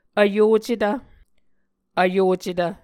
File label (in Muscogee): "vyocetv", Muscogee